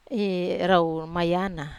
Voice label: "mayana rau", Wamesa